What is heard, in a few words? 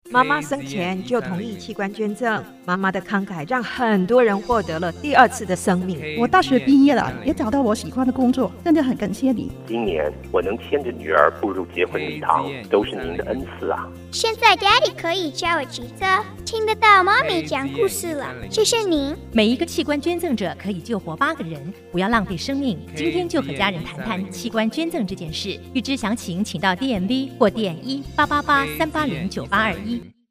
Radio Spot; AM1300